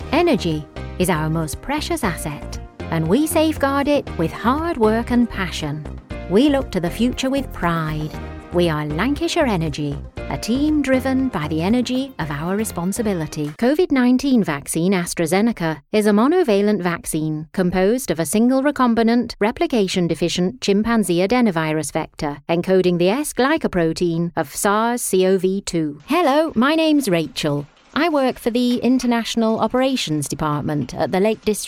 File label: Corporate